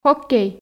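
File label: "хоккей", Komi, female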